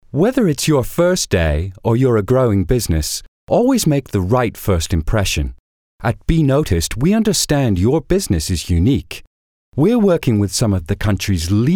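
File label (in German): US English, Werbung Neutral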